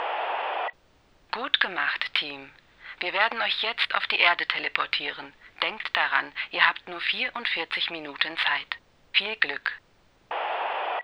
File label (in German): Deutsch Computerspiel Commander; VRexitGame